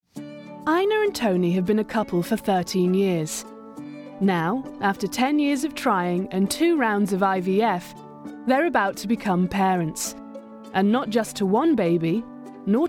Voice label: Narrative